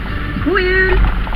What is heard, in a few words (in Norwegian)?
avslutning av reklamesnutt; Julehysteri; RadioRiks